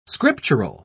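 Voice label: "scriptural", British English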